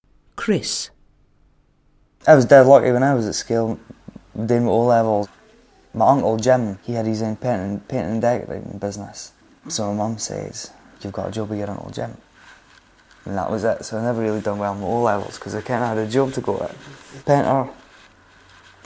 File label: Dundee, scotspeak